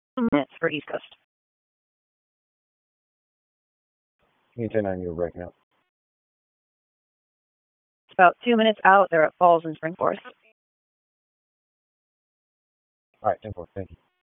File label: Raleigh Police Northeast District Disp, P25 recordings